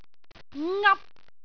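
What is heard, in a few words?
"ngap1", Cantonese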